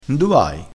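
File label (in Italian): "morecci", dialettale crespinese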